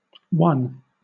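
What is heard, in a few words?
"won", British English; pronunciations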